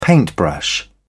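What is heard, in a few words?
"paintbrush", British English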